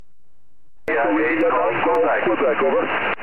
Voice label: qso

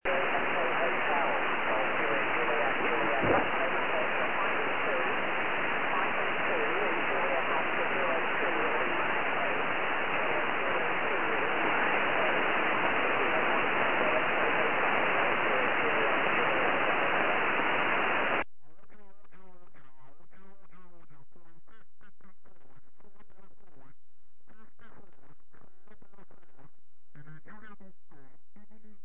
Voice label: 70cm, tropo

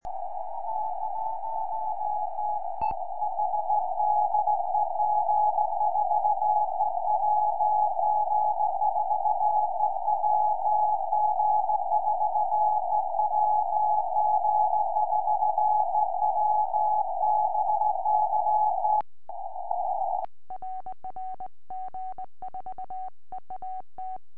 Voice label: 70cm, tropo

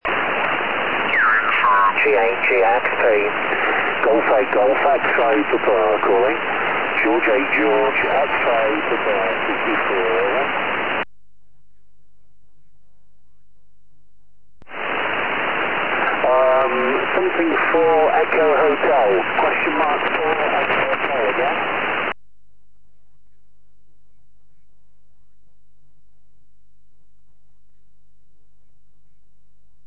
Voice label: 4m ssb

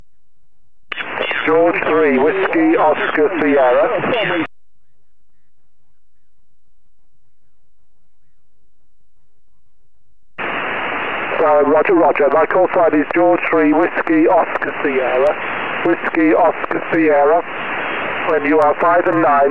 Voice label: qso 4m

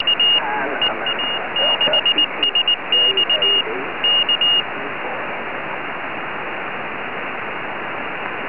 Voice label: qso 4m

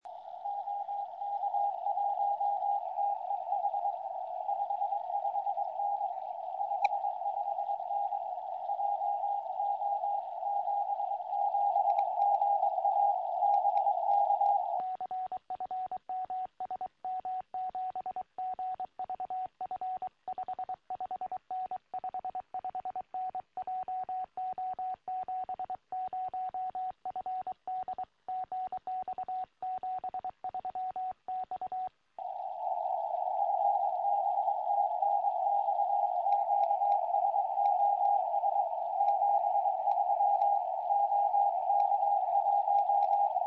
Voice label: 70cm, tropo

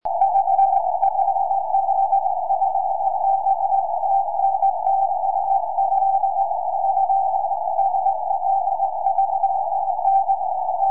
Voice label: after qso, tropo

